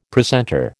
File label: "precentor", American